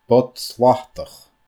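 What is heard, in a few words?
"bod slatach", Scottish Gaelic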